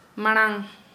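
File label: "before", Santali, female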